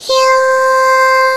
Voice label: "hiu" C6; 音MAD

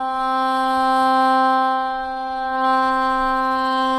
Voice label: "a", C5

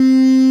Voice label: u, bass; 音MAD; 命运石之门

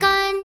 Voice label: "kan" G4; 音MAD; 赛马娘; 无声铃鹿

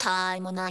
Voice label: voice F#5; 音MAD; 转生成为了只有乙女游戏破灭Flag的邪恶大小姐

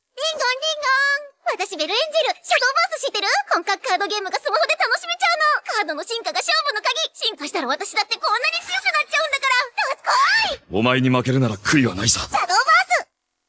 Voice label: TVCM Vocals; 15秒; 影之诗; Shadowverse シャドウバース; ベルエンジェル編

